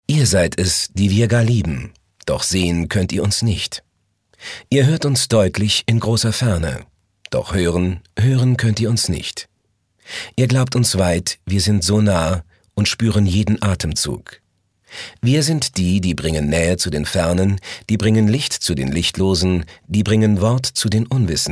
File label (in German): sachlich, maenner